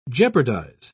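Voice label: "jeopardise", British English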